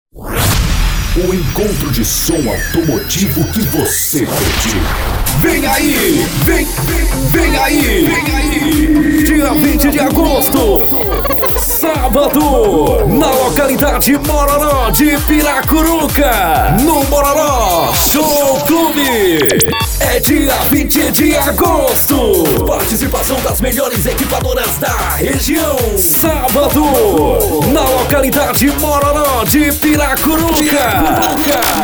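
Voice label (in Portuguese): Voz Jovem, Masculino, Português - Brasileiro; Encontro de Som Automotivo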